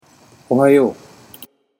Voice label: "ohayo", Japanese